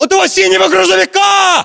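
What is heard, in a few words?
landmark near truck blue, battlechatter, Russian; localized english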